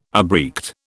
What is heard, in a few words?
"abreact", British